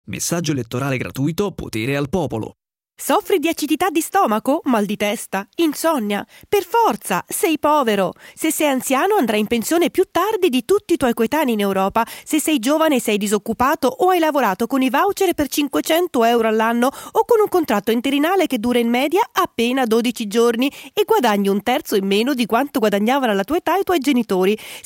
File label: spot radio no music